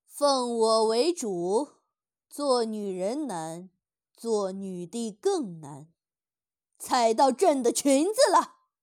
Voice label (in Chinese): 御姐女王音; 武则天